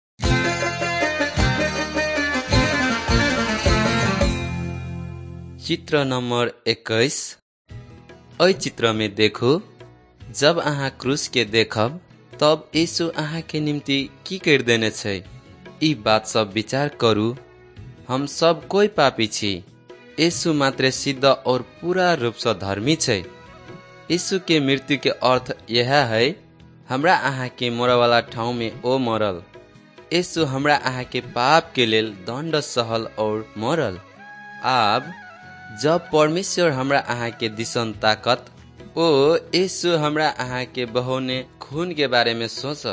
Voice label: maithili thetiya

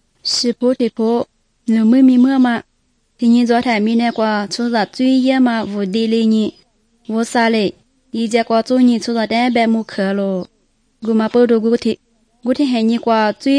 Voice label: good news lisu southern, drama

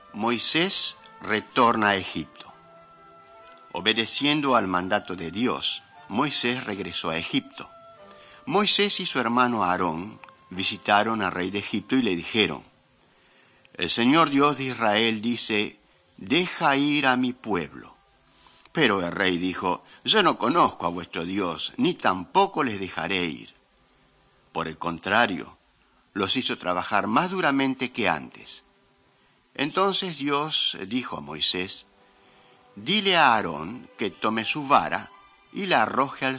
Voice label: mighty men of god spanish latin america

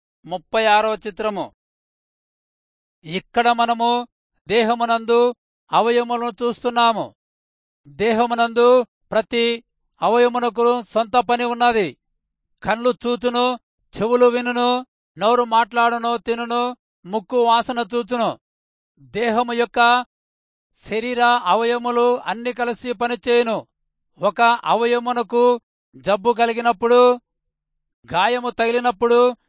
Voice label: telugu rayalseema; good news

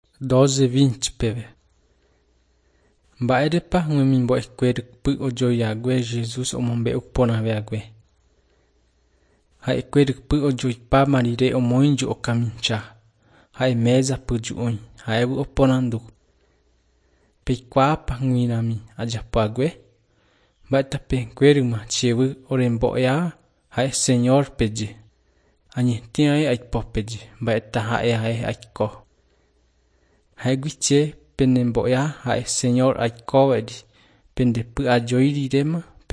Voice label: john guarani mbya, bible

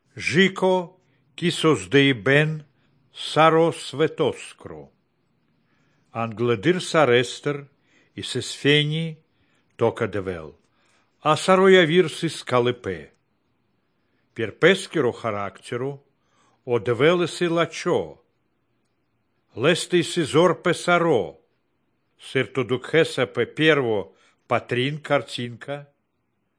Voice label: good news baltic romani; visagalis dievo zmogus; romani baltic nvs nt drama

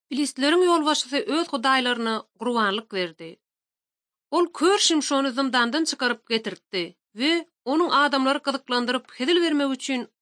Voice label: turkmen, ot drama

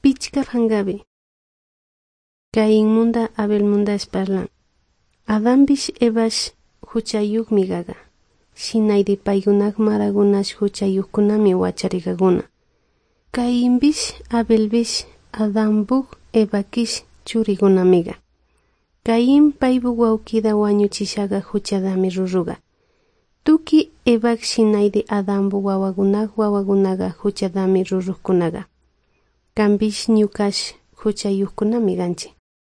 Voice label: good news quichua de salasaca

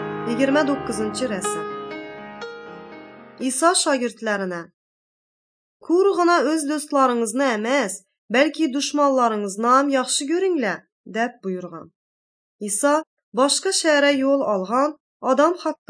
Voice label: uzbek northern kipchak; good news

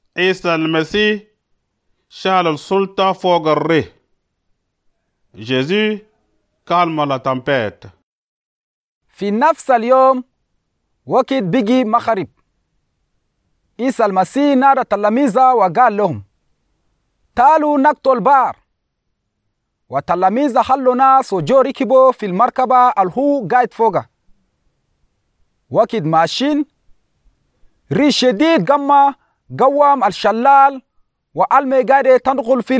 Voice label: astonishment, chadian arabic

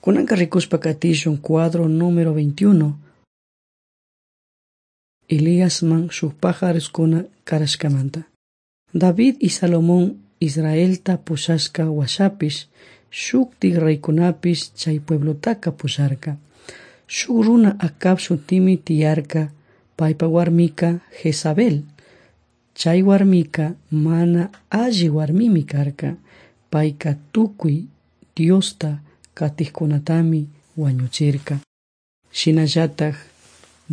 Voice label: servants of god quichua chimborazo

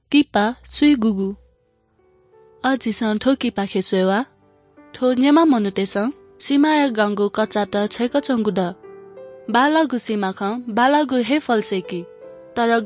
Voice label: good news newari bhaktapur

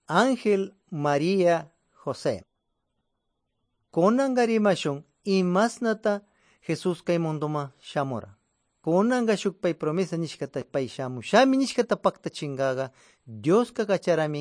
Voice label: good news quichua de pastaza

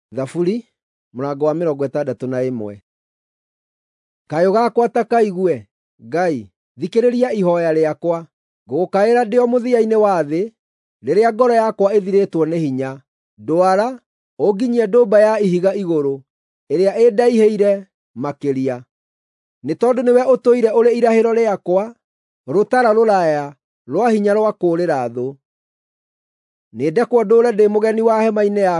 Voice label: psalms, gikuyu drama

